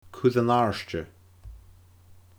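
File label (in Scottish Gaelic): "cuir an àirde", Scottish Gaelic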